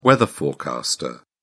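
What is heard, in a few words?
"weather forecaster", British English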